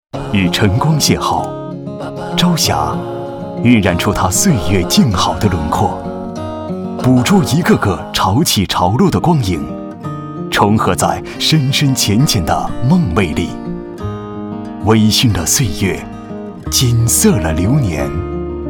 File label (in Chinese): 男（大气时尚）; 优质大气